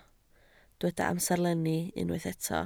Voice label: "amserlenni", Welsh